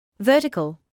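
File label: "vertical", British English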